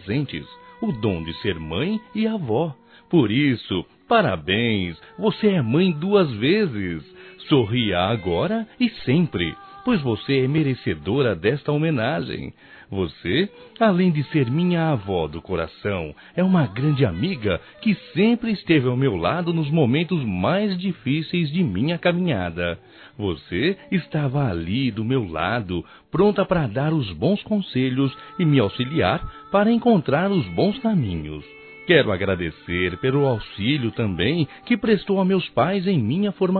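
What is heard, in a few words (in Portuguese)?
AVÓ RELIGIOSA, male, Telemensagens Dia das Mães; Masc; Telemensagens 2017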